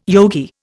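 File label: "yogi", Indian English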